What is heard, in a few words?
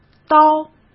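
"刀" Mandarin Chinese; hsk; chinese-flashcards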